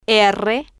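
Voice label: "rr", spanish, female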